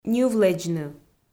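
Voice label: "ньывлэдзны", Komi, female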